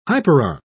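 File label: "hyperon", British English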